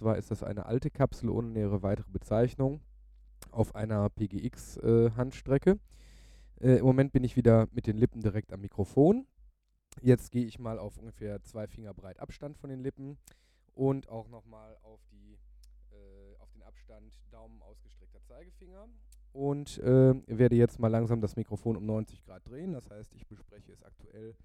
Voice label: Beta87, vocal mics; PGX